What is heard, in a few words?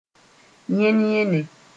"yẽn-yẽne", Mooré